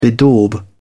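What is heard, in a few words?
"bedaub", British English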